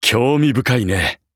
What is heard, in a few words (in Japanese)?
VO jp